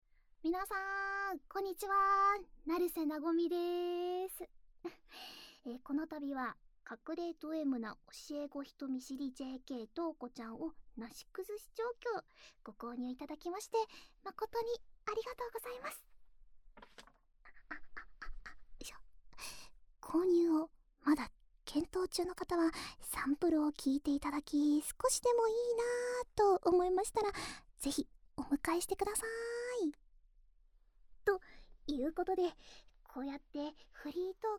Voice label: フリートーク

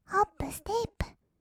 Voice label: "ほっぷすてっぷ", kawaii voice; ASMR; 1200 kawaii voice!!; 音ゲー

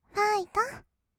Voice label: ファイト, kawaii voice; 音ゲー

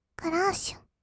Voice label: "クラッシュ", kawaii voice; 音ゲー

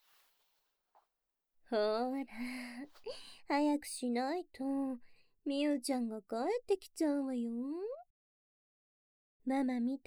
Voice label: 耳舐め 首舐め, ASMR; 膝の上に座らされて後ろから耳舐め首舐め手コキ

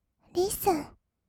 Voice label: "リッスン", kawaii voice; ASMR; 1200 kawaii voice!!; 音ゲー

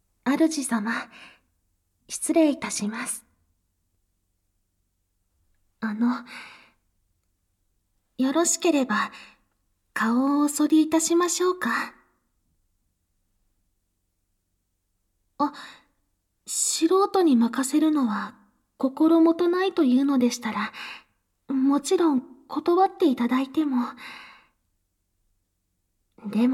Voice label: 甘々和服メイドさん ボイスのみ, ASMR

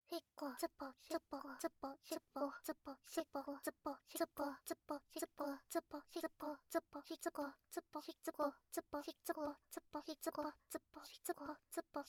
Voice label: つぽつぽしこしこボイス, 汎用エロボイス; おまけ音声（汎用指示ボイス・汎用エロボイス）

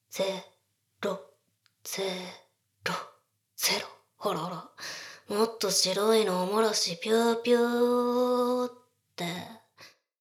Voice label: ダウナー風俗 カウント

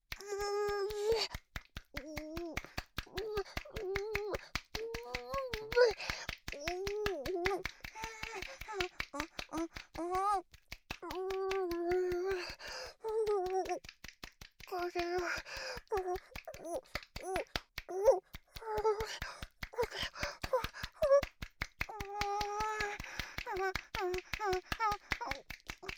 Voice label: 口ガムテープレイプボイス; ASMR

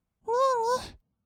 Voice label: "にぃに", kawaii voice; ASMR; 二人称

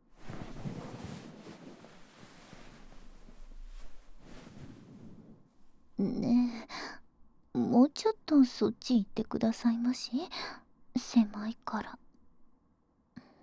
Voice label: お姉ちゃんの寝かしつけ

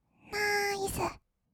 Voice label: "ナイス", kawaii voice; ASMR; 色々なワンショット

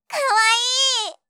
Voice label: 可愛い; 相づち・反応系【ポジティブ】

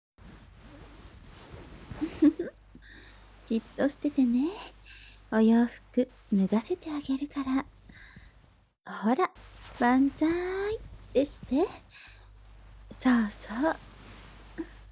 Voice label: ママの囁き＆背中トントン 効果音入り

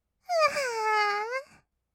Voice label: "うわーん", kawaii voice; ASMR; 色々なワンショット; 1200 kawaii voice!!

